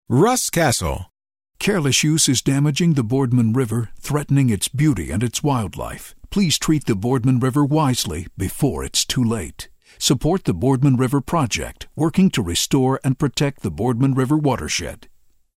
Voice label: CONCERNED